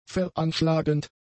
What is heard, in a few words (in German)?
"veranschlagend", German; adjectives